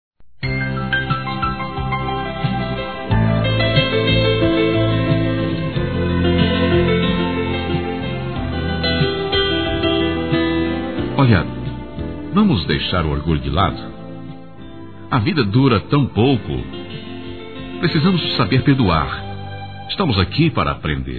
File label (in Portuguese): nova chance amizade, m; Desculpas; Telemensagens 2017